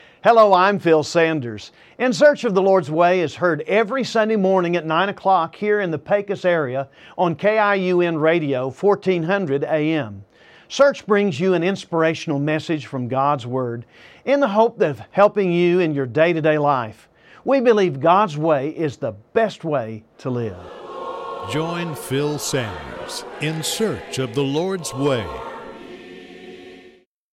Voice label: Radio Promo